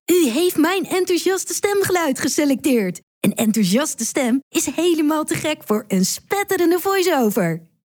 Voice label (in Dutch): enthousiast